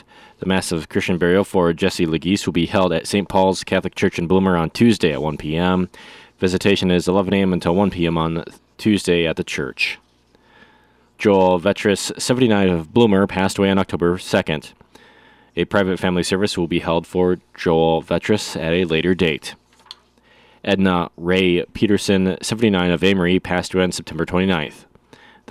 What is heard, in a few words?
Funeral Announcements